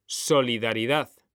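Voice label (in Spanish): "solidaridad", Spanish; mekanta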